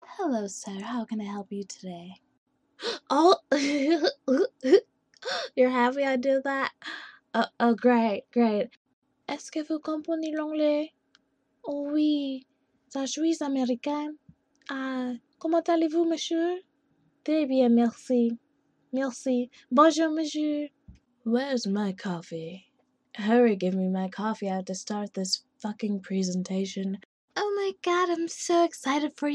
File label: vocal reel